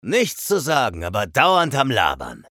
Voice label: menu chatter; eisen